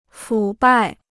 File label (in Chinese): "腐败", Chinese